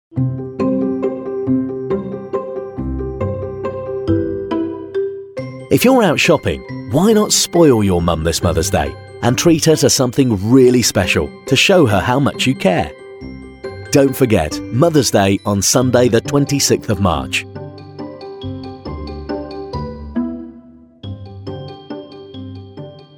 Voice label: mothers day male

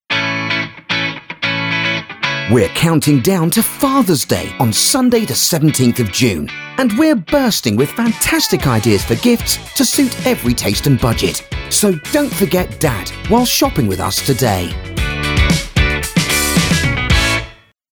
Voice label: fathers day male

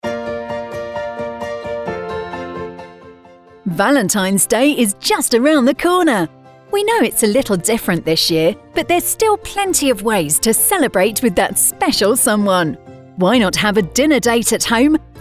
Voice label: Valentines Day Female

